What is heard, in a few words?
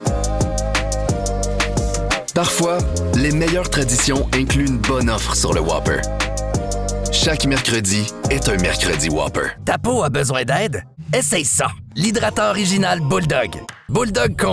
FR Commercial